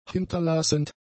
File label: "hinterlassend", German; adjectives